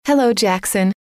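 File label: "hello", female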